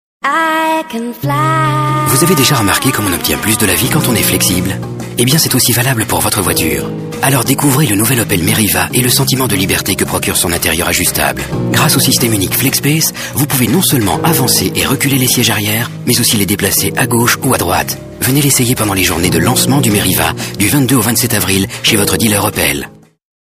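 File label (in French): Belgian French, male, commercial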